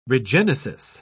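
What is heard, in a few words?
"regenesis", British English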